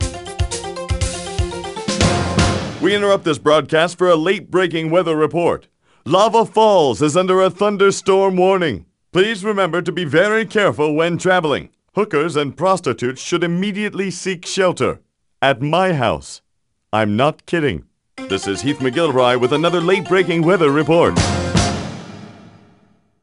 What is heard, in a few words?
News Rain